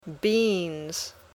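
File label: "beans", English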